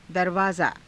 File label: "darvaazaa", Hindi